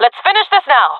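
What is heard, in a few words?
round winning, female voice; jungle; Counter Strike Model Pack; CS:GO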